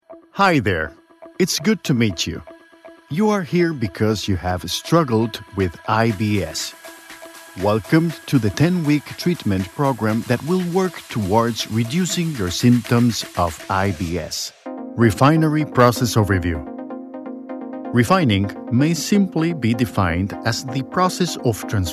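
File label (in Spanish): e-learning, Mexico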